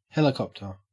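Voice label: "helicopter", Australian English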